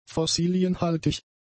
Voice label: "fossilienhaltig", German; adjectives

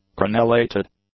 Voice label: "crenellated", English; adjectives

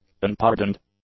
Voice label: "unpardoned", english; adjectives

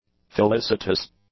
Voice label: "felicitous", english; adjectives

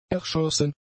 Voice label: "erschossen", German; adjectives

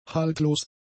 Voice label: "haltlos", German; adjectives

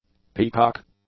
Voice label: "peacock", English; nouns

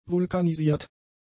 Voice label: "vulkanisiert", German; adjectives

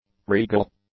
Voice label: "regal", English; adjectives

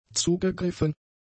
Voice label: "zugegriffen", German; adjectives

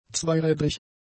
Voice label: "zweirädrig", German; adjectives

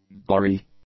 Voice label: "glary", english

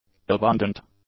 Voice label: "abundant", english; adjectives

